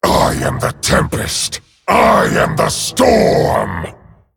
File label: Male Dragon Start